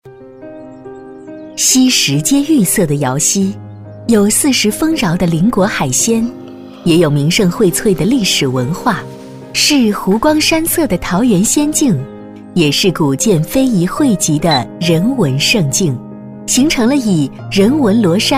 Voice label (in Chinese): 女 专题 街道旅游风情小镇; 瑶溪街道旅游风情小镇